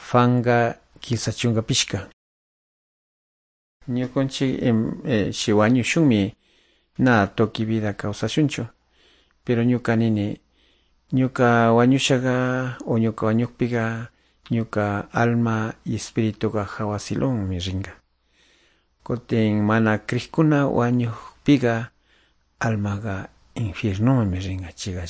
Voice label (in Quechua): good news quichua de calderon; quechua; bible